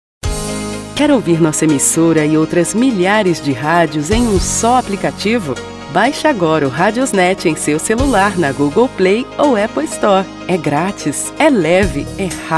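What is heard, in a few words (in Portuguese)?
Quer ouvir nossa emissora, feminino; RadiosNet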